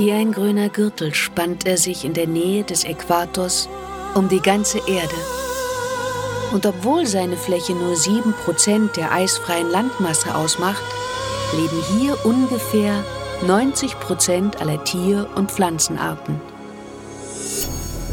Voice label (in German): Doku Tropischer Regenwald